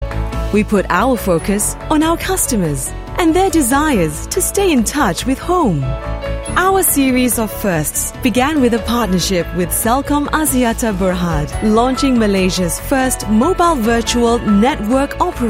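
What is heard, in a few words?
Corporate VO sample; Merchantrade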